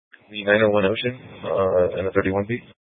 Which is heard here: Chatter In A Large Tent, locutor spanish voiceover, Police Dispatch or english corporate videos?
Police Dispatch